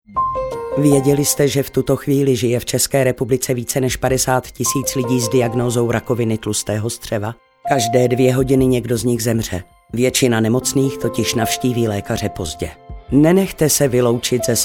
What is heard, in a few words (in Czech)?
reklamni spot